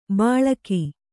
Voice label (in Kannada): "ಬಳಗ", Kannada